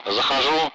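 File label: Speech Russian; Messages; Allied Flight; DCS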